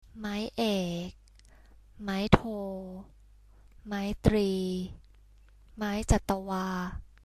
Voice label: tones, thai